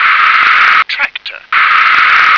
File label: "tractor", English